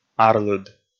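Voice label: "арлыд", Komi, male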